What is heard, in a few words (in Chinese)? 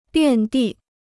"遍地", Chinese